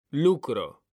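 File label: "lucro", Spanish, male; mekanta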